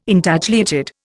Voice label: "Intagliated", Indian